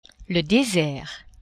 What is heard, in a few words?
"desert", French; geographie